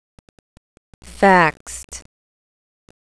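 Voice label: "faxed", English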